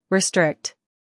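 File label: "humor", British; restrict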